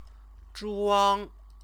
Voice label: "zhuang", Chinese; Chinese lessons